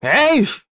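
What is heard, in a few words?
Vox Deny, Korean; Neraxis; kingsraid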